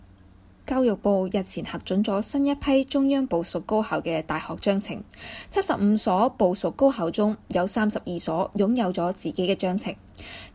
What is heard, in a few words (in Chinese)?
新闻播报粤语